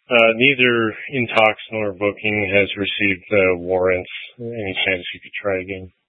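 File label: Police Dispatch, Scanner audio; Police 1 Dispatch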